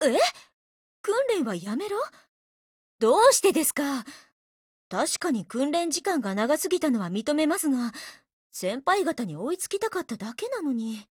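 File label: DIALOGUE, Japanese; CM901; 少女前线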